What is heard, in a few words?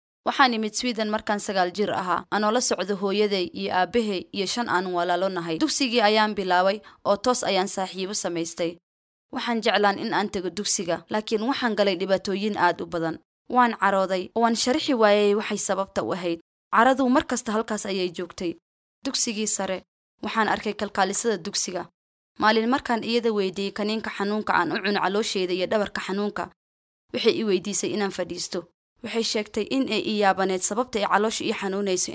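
Somali female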